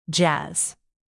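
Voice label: "jazz", English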